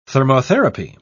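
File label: "thermotherapy", British English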